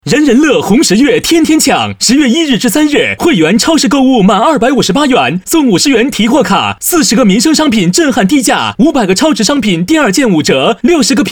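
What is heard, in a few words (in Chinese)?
【促销】男-快速激情; 人人乐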